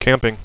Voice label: camping, radio bot; cstrike

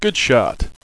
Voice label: "good shot", radio bot; cstrike

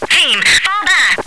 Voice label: fallback, radio, woman

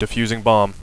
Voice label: defusing bomb, radio bot; cstrike

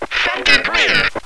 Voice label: clear, radio

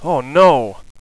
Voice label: "oh no" sad, radio bot; cstrike

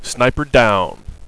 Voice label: "sniper down", radio bot; cstrike

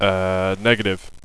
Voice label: ahh negative; cstrike

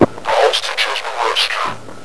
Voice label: rescued, zombie male

